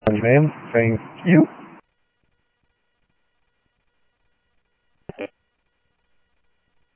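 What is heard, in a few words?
Sheriff West County, scanner audio trunk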